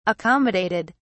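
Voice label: "accommodated", British English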